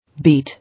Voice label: "Beat", English